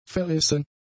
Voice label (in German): "verrissen", german; adjectives